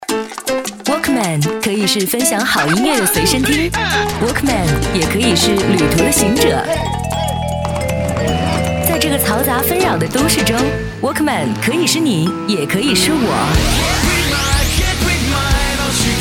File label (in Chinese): 广告, 电台